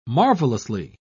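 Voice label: "marvellously", British English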